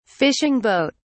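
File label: "fishing boat", British English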